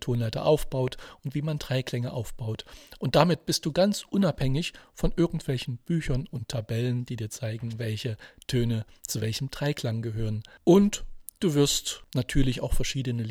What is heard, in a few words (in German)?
Klavier beschreibung laut